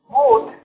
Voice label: "but" Armenian

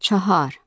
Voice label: "chahar", Persian

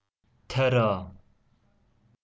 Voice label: "tera" Mittanian

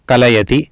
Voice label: "kalayati", Sanskrit

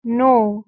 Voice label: "noo", Persian

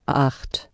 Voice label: "acht", German

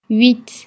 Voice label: "huit", French

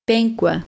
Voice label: "penkwe", QUINQUE; Proto-Indo-European

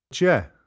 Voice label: "deach" Irish

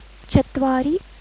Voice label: "chatwari", Sanskrit

